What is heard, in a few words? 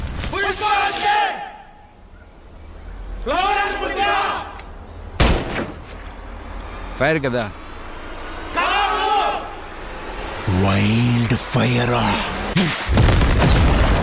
Dialogue Ringtone; Pushpa